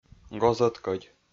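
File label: "гоз ӧткодь", Komi, male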